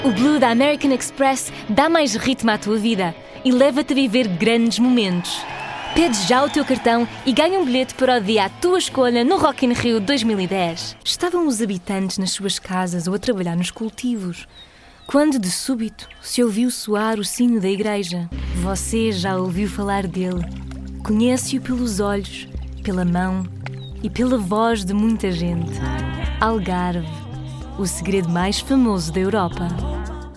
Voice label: Portugese

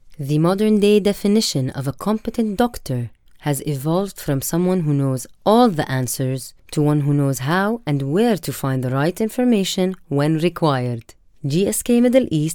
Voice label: English Arabic accent; Arabic (Iraq), Middle Eastern, Male, 30s-50s

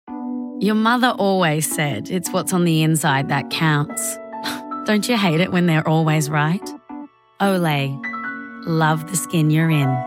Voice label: Commercials

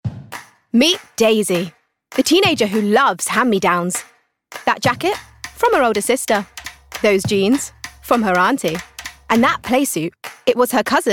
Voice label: Commercials, 30s

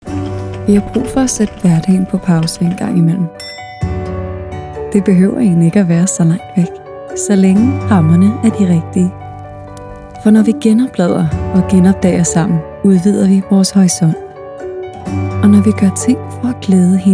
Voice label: TV Commercial Danish; Visit Copenhagen

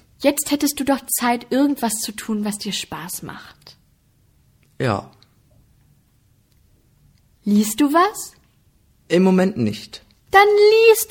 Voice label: German

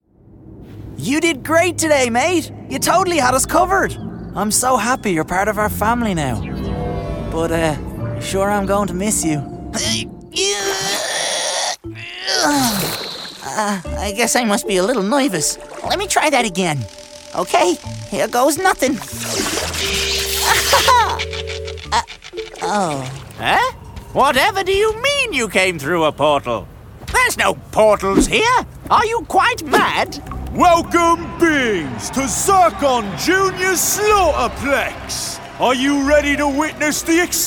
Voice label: Animation; regional accents

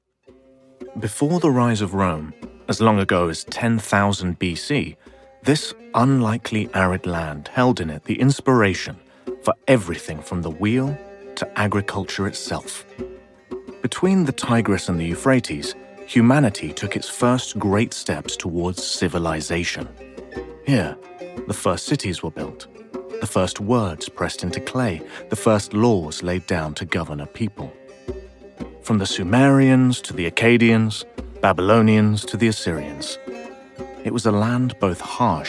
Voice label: Documentary

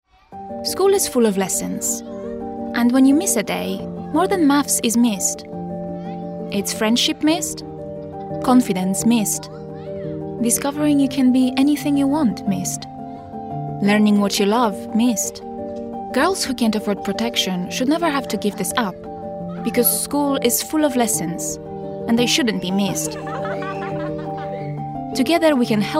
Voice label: English Polish accent

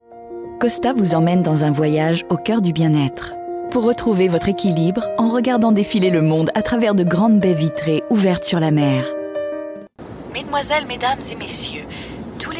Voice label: French

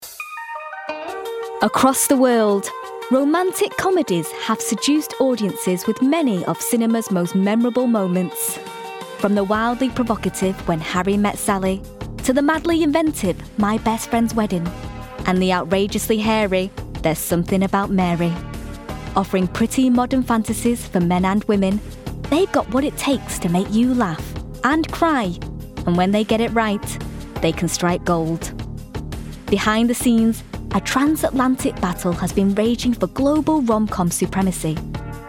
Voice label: Narration

